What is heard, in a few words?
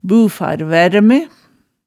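"bufarværme", Hemsedal dialect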